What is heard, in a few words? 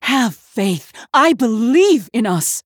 Female Human Conviction